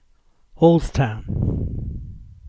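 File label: "Halsetown", English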